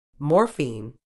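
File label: "morphine", British English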